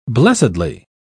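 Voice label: "blessedly", British English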